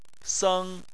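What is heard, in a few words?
"seng" tone 1, Chinese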